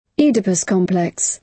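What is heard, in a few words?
"oedipus complex", British English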